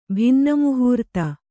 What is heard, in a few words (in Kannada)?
"ಭಿನ್ನಮುಹೂರ್ತ", Kannada